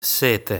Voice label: "Sete", Italian

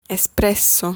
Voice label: "Espresso", Italian

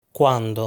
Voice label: "Quando", Italian

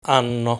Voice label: "Anno", Italian

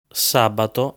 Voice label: "Sabato", Italian

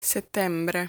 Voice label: "Settembre", Italian

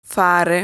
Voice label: "Fare", Italian

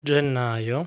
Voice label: "Gennaio", Italian

